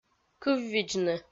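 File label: "кыввидзны", Komi, female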